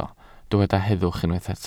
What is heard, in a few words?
"heddwch", Welsh